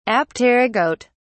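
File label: "apterygote", British English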